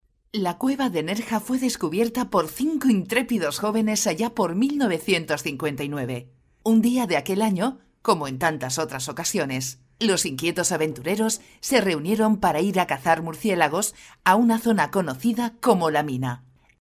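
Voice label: ES F corporate, Spanish, female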